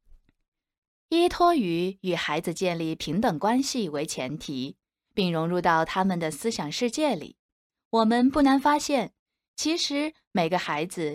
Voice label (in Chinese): 课程宣传片